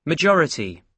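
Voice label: "majority", British English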